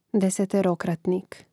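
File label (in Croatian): "deseterokratnik", Hrvatski; mreznik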